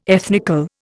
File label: "ethnical", Indian